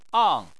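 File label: "ang" Chinese, fourth tone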